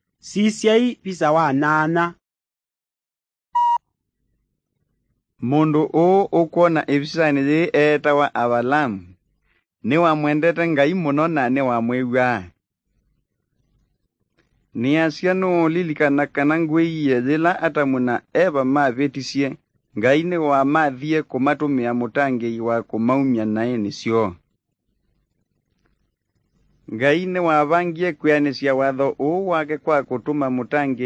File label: kikamba drama; good news; bible